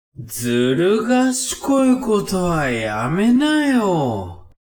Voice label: man